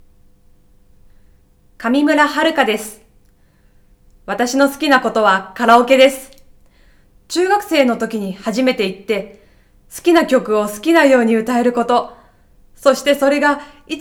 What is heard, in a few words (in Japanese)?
フリートーク